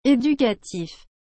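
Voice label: "educatif", French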